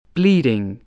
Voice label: "bleeding", spelling

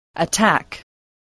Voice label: "attack", English

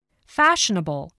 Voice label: "fashionable", voice

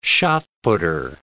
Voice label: "shot-putter", English

